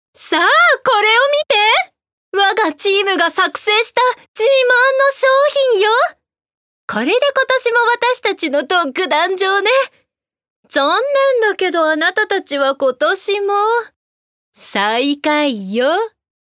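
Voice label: foreign film dubbing, Japanese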